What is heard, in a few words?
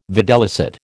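"videlicet", American English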